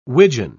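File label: "widgeon", British English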